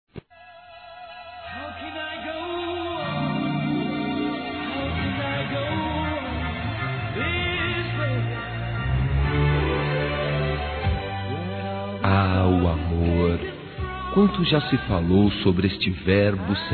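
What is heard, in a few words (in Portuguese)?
ROMANTICA, Telemensagens, Românticas masculino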